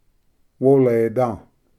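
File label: "woleda", Cherokee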